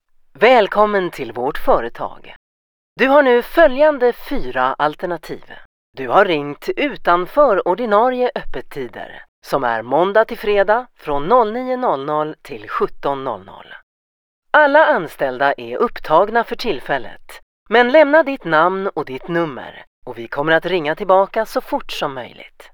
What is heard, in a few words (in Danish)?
svensk kvinde; formel